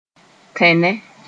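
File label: "tẽne", Mooré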